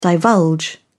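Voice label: "divulge", British English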